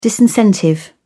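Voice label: "disincentive", British English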